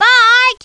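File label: "Bart!", spoken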